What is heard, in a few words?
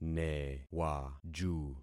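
"newaju", concatenated syllables; Affixing experiment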